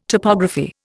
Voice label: "topography", Indian

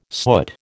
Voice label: "soot", American

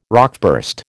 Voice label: "rockburst", American

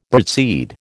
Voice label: "birdseed", American

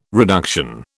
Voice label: "reduction", British

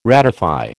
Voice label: "ratify", American

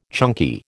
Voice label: "chunky", American

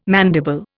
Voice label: "mandible", Indian

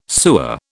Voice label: "sewer", British

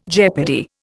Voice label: "jeopardy", Indian

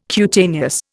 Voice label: "cutaneous", Indian

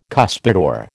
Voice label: "cuspidor", American

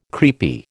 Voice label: "creepy", American

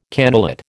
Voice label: "cannulate", American

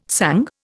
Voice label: "sung", Indian

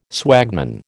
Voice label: "swagman", American

